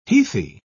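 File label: "heathy", British English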